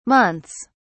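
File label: "months", British English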